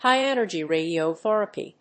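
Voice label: "High-Energy Radiotherapy", English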